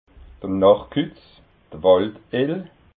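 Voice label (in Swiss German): "nachtkutz", Alsatian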